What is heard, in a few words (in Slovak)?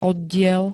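"oddiel", Slovak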